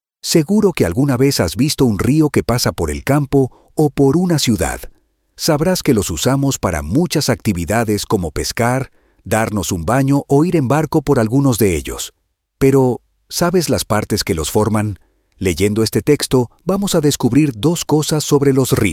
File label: e-learning for elementary school